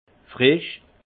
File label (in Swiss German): "frisch", alsace; frais; vin